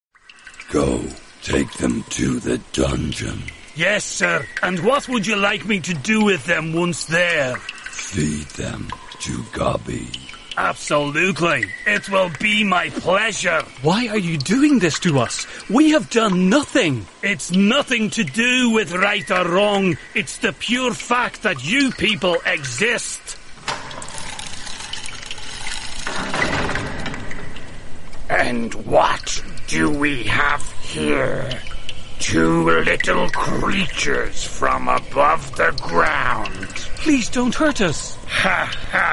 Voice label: english irish video games; locutor profesional irlandés/inglés residente en Dublín